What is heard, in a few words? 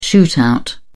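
"shootout", British English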